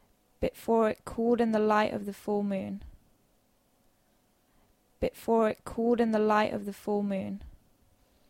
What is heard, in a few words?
Dictation; phonology